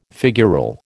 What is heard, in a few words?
"figural", American